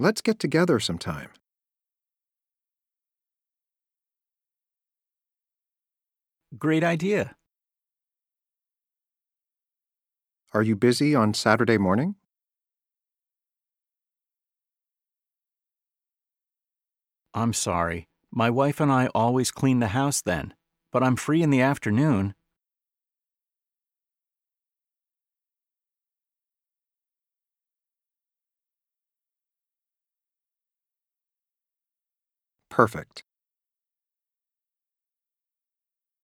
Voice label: Pronunciation Practice; Connectivity Foundation